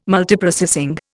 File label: "Multiprocessing", Indian